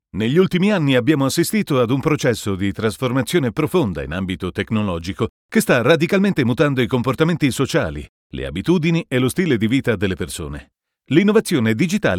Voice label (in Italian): ITA eLearning technology